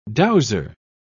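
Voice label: "dowser", British English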